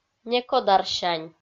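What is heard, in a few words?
"некодарсянь", Komi-Zyrian, female; kpv audio dict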